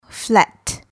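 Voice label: "flat", English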